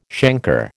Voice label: "chancre", American